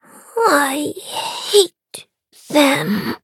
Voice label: defeat, en; cookierun